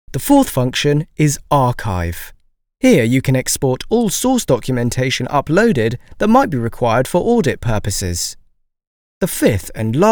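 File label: Explainer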